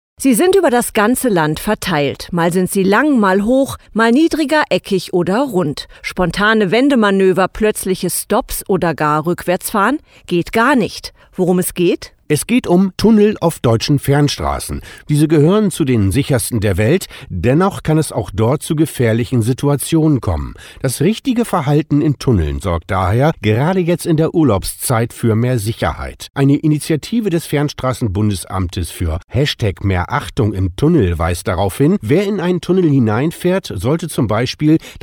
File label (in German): Tunnelsicherheit Funkspot; Tunnnelkampagne; tunnelsicherheitskampagne